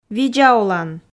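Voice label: видза олан, Komi, female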